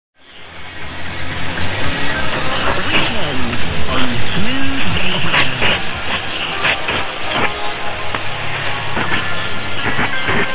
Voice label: uk smooth radio 1557